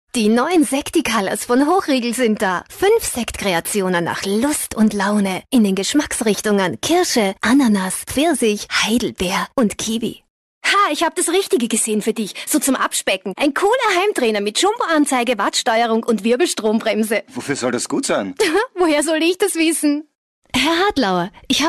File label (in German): Lebendig; Fremdtake